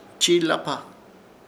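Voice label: "Chiilapa", Chamacoco word, amenaza; Ishir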